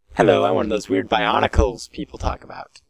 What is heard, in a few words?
voice experimentation